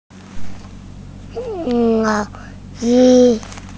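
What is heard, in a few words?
"lao3 shi1", Mandarin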